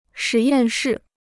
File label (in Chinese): "实验室", Chinese